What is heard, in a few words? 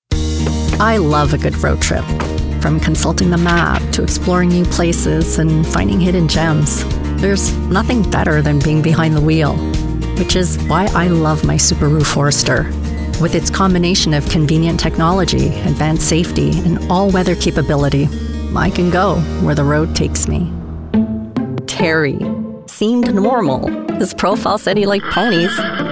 Commercial